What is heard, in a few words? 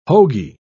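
"hoagy", British English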